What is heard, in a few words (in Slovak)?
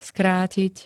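"skrátiť", Slovak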